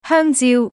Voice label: slot fruits female